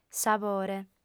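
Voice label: "sabore", Sardinian, female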